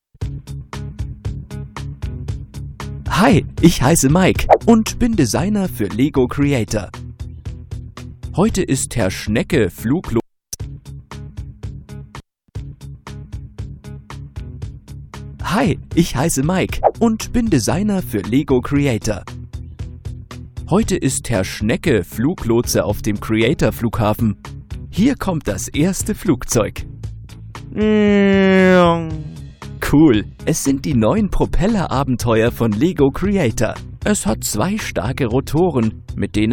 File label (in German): Freundlich